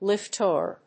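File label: "liftover", English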